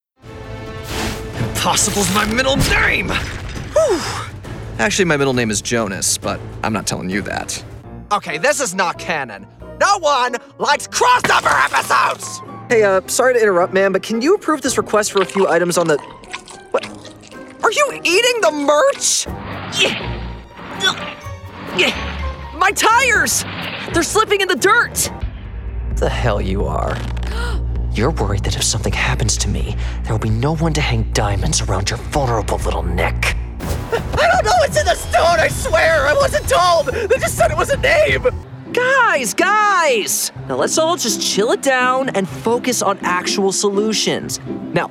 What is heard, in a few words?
Animation